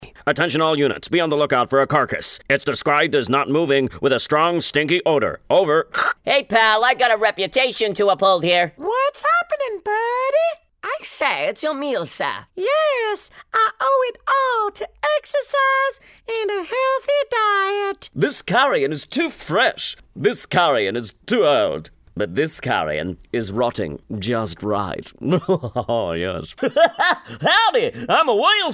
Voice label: Character VOs